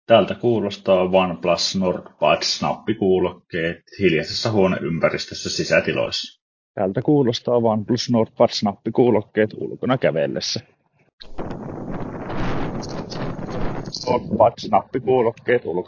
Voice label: OnePlus Nord Buds mikrofoniesimerkki

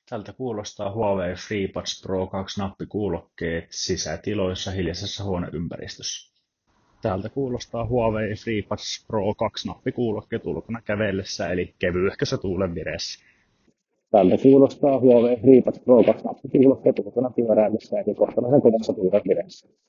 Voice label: Huawei Freebuds Pro 2 mikkiesimerkit

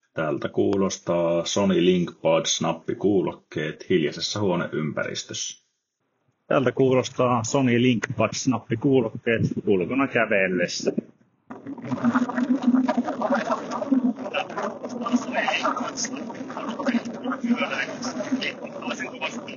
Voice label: Sony LinkBuds mikrofoniesimerkki

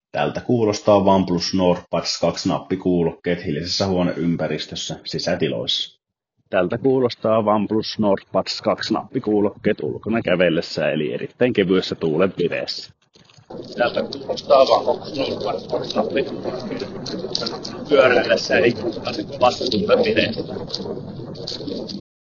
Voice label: OnePlus Nord Buds mikrofoniesimerkki

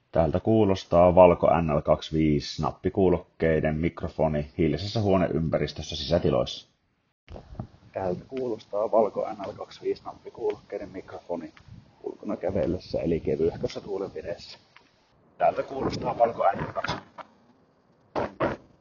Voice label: mikrofoni, nappikuulokkeet; Valco NL25

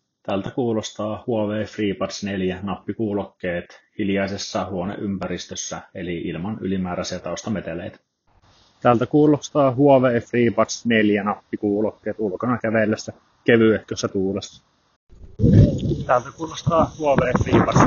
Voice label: mikrofoniesimerkki; Huawei Freebuds 4

